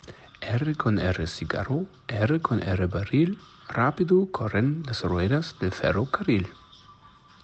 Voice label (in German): spanischer Zungenbrecher; Kompass-Berlin